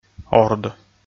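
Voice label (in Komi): "орд", Komi, male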